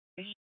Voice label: Mesa PD Dispatch; Fiesta